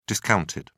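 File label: "discounted", English, British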